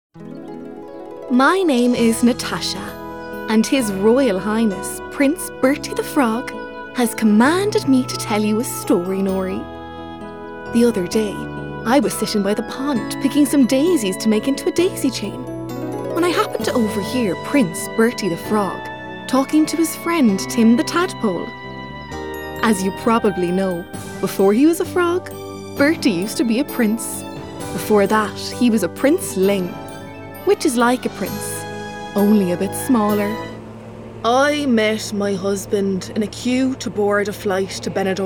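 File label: RADIO DRAMA, female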